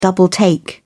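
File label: "double take", British English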